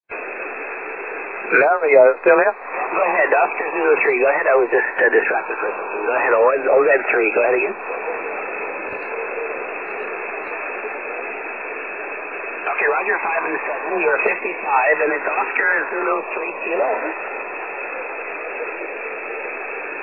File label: 6m ssb